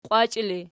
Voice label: "qiwachii", Archi